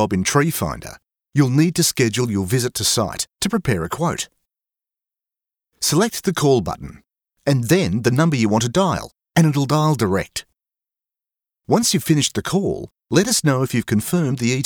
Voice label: Explainer Video; Tree Manager